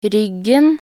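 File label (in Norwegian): "ryggen", Norwegian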